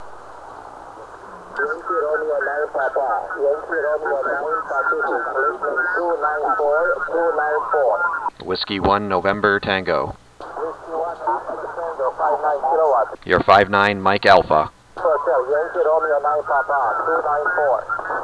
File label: romania 40m ssb; 2009 arrl ssb